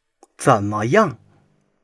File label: "zen2 me yang4", Chinese